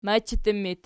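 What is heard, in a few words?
"machattu" locative, Archi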